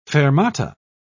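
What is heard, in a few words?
"fermata", English, British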